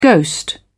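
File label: "ghost", British English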